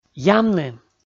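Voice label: "ямны", Komi, male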